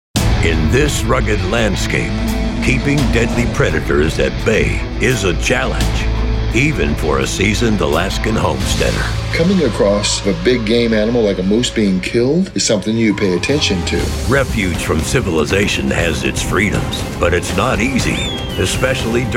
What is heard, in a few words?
TV Narration